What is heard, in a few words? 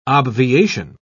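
"obviation", British English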